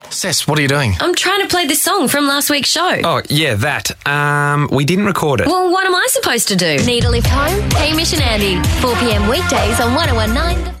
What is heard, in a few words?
RADIO STATION ADVERTISEMENT